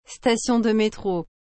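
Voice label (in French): "station de metro", French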